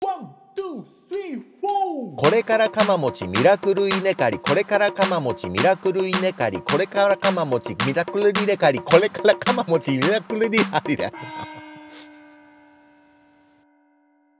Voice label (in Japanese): 早口言葉リズム（中級編）